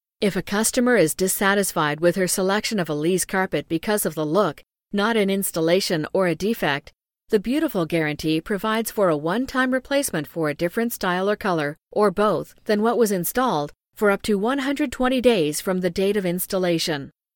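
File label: US English, corporate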